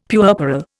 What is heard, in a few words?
"puerperal", Indian; Dictionary